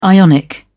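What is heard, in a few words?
"ionic", English, British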